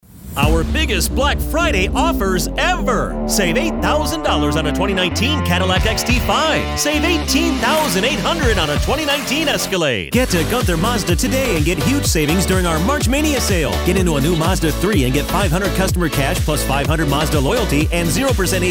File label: English American automotive